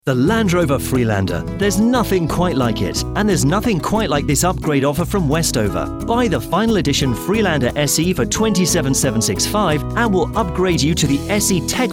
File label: commercial